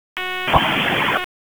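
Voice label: Public Safety Tactical, p25; wake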